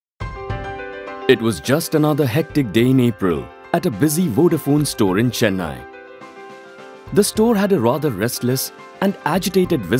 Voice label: Narration

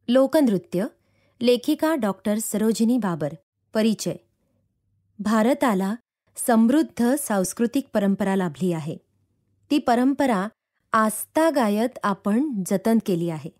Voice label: e learning

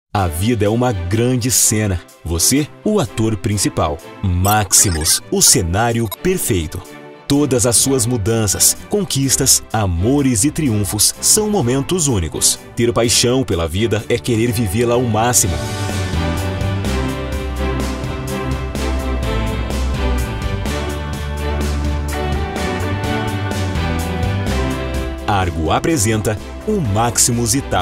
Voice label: Commercial

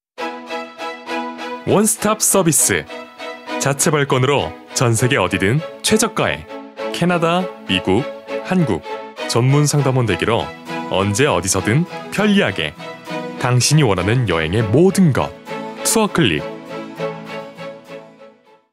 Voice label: Commercial

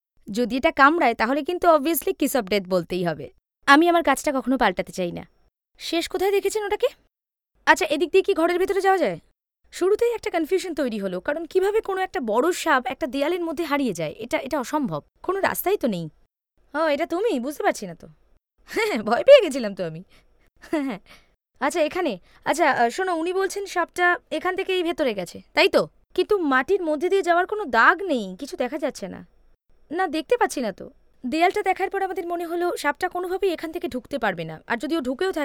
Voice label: Character Sample; NGC

